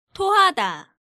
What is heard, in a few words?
"Tohada", Korean